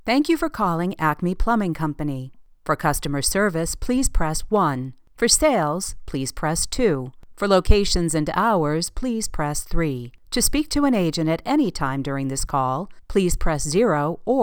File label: IVR greeting